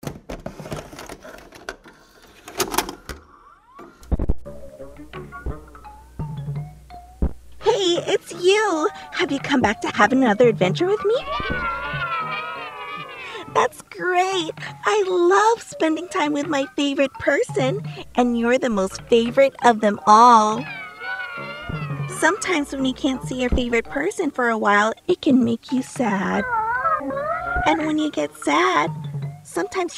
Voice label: HORROR; FIONA

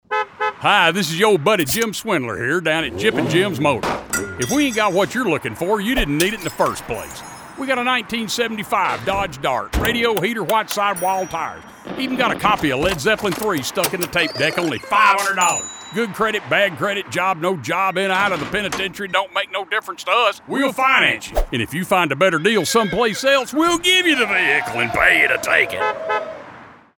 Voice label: Character Comedy; GYP & JIM

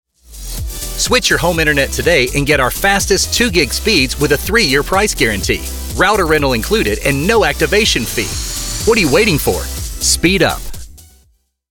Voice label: Commercial INTERNET

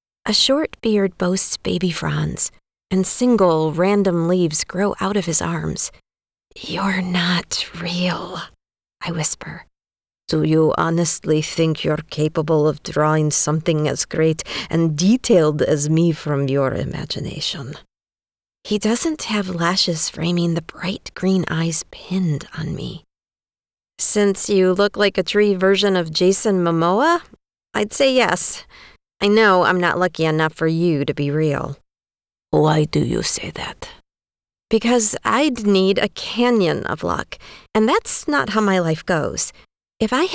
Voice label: accents

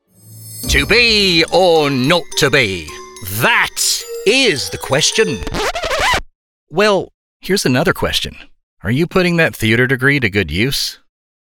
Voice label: Commercial THEATRE

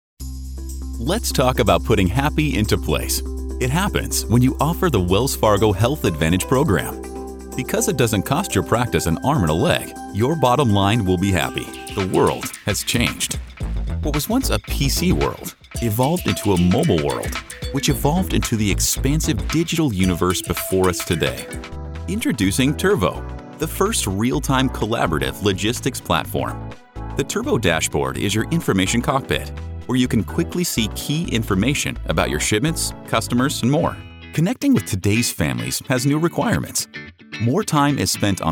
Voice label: Corporate Narration

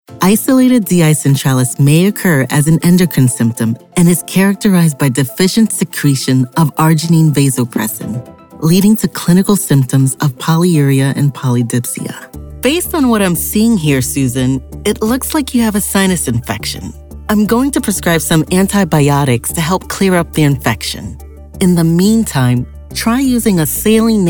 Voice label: medical narration

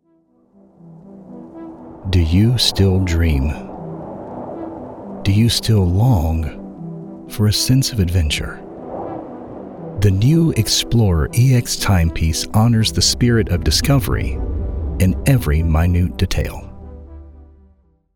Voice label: Commercial WATCH

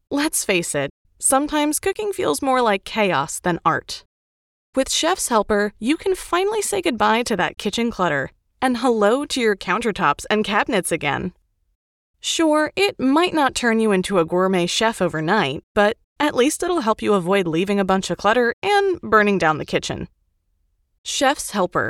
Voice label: Wry; Kitchen Help

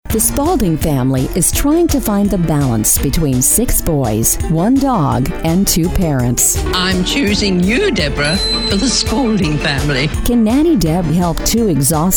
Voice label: TV Promo

